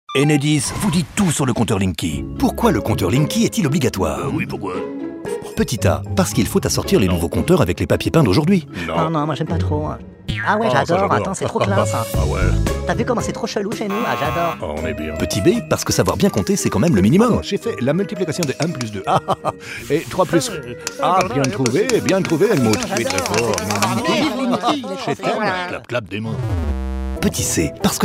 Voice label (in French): homme raleur femme cagole; einstein; Enedis Linky